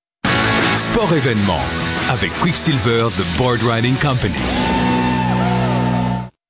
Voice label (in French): Voix Off Voice Over; Quicksilver